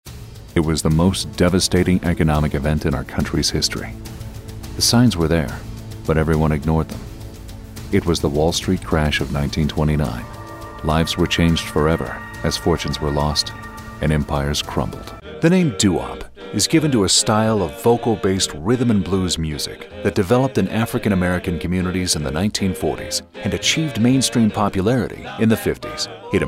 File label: Narration